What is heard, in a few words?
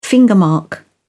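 "fingermark", British English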